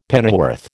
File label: "pennyworth", American